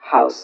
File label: LoFi Words, LoFi Vocals